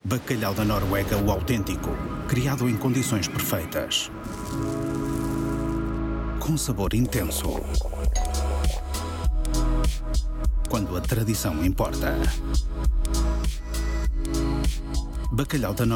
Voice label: male portuguese tv commercial; nsc clipfish; Devine Voices